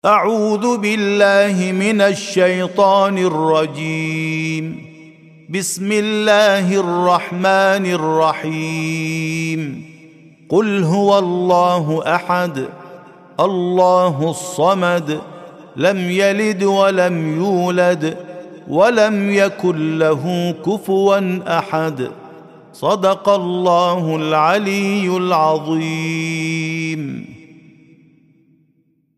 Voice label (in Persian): ترتیل سوره مبارکه توحید